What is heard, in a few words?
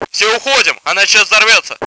blow, radio, russian; cstrike